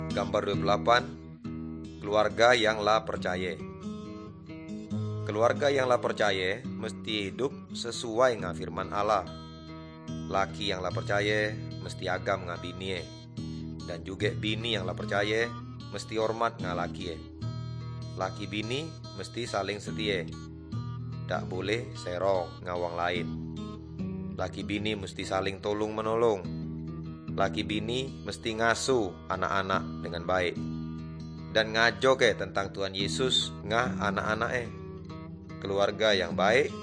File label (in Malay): good news melayu tanjung raja